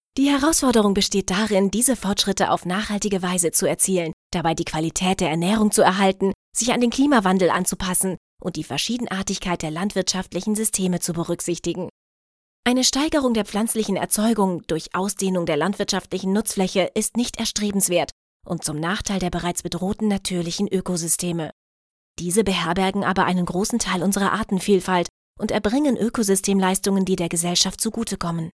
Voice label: Erklaervideo Explainer Video Stimmprobe; EU Project CropBooster

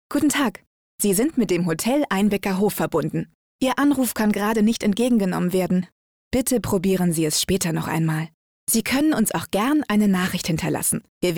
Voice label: telefonansage

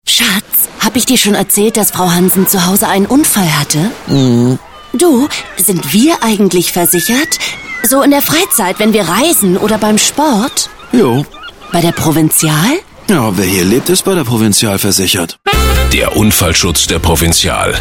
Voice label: Funkspot; Provinzial

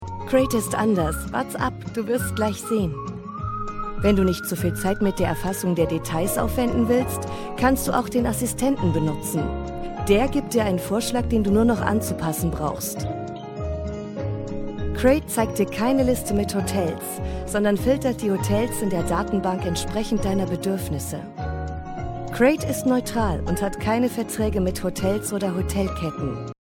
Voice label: ERKLÄRFILM; Crate

